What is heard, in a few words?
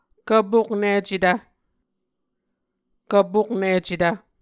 "kvpoknicetv", Muscogee